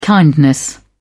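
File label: "kindness", British English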